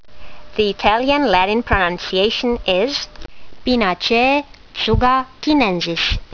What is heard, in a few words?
latin; Tsuga chinensis